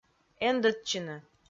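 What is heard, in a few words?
"эндӧдчыны", Komi, female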